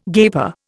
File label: "gaper", Indian